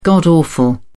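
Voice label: "god-awful", British English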